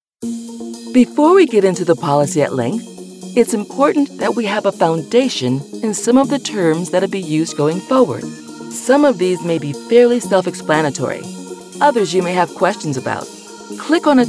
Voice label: Narration

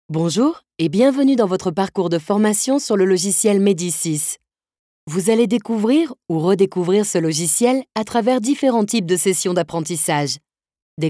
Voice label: e-learning

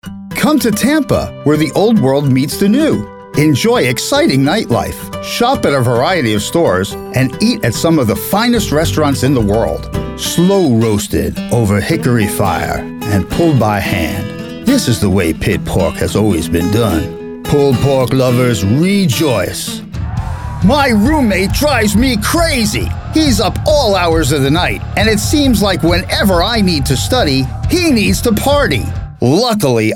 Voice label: Commerical

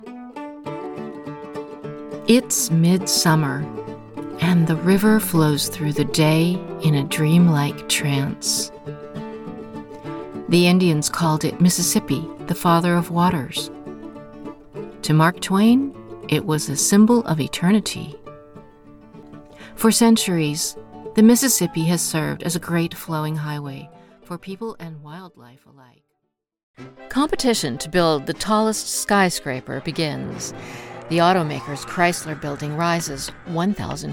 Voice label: Narration Docu

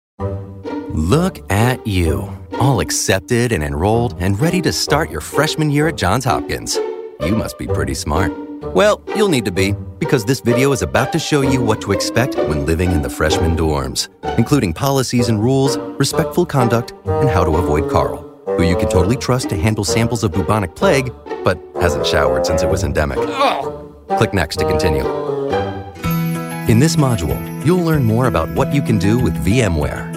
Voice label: E Learning

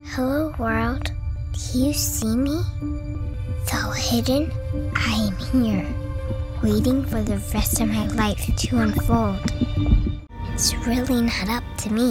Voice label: VO Commercial

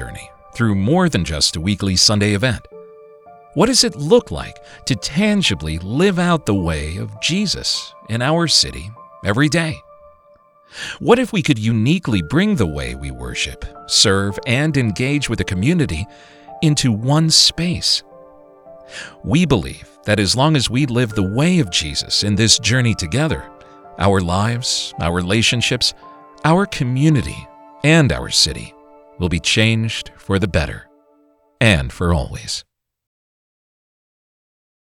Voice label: Church Promo Voice

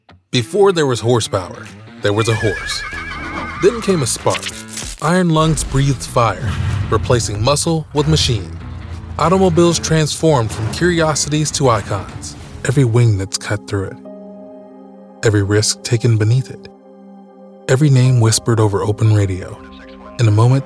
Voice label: Narration

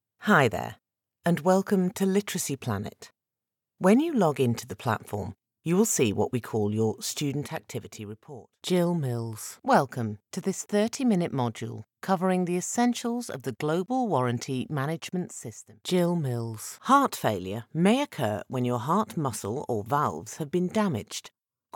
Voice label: explainers

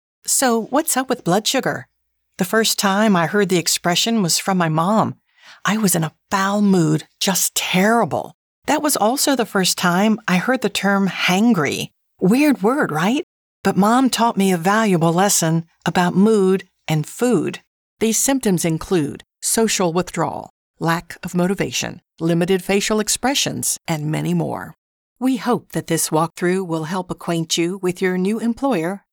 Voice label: Narration Samples; Made by Headliner

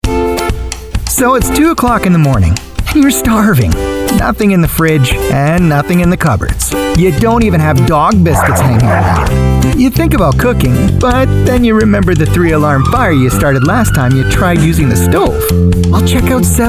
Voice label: Commercial

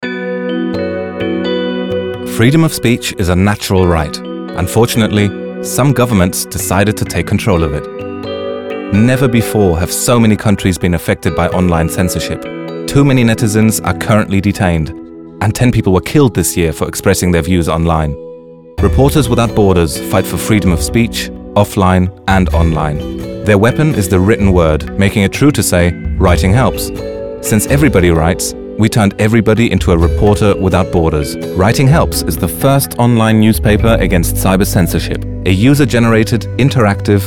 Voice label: ENG; ReportersWithoutBorders

